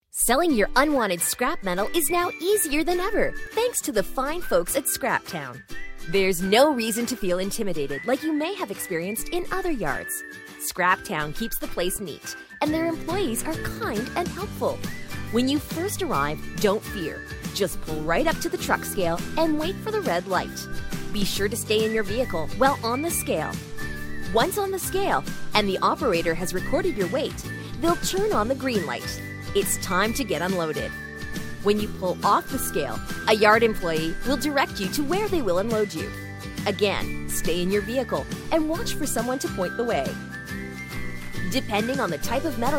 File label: USA